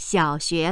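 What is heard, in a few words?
"xiao3xue2", Chinese, female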